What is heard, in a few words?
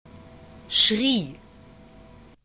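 "schrie", German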